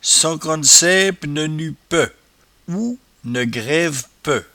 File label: "coquansaipe", patois jura